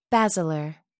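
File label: "basilar", British English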